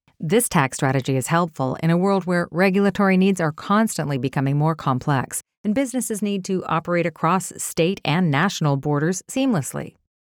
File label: confident trustworthy